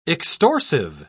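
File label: "extorsive", British English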